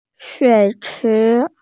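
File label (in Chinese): "shui3chi2", Mandarin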